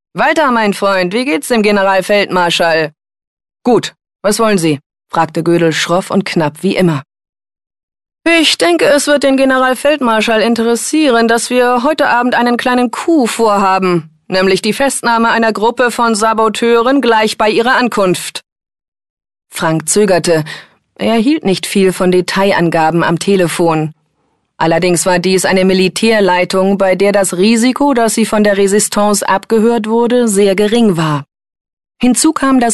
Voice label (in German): horbuch; lubbe audio; ken follett die leopardin